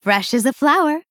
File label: Female Dryad